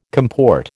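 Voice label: "comport", American English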